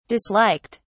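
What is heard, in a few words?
"disliked", English, British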